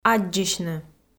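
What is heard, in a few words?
"аддзысьны", Komi-Zyrian, female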